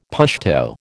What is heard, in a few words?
"Pashto", American